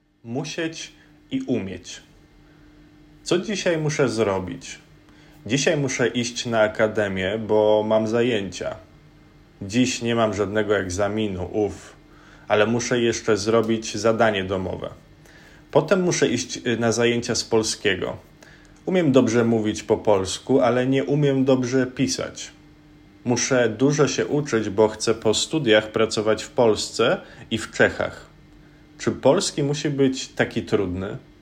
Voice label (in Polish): normalne tempo